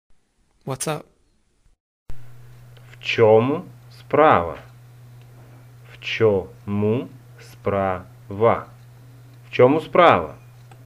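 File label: Whats up, Ukrainian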